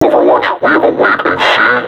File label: taunt, vo combine suppressor; Half Life Alyx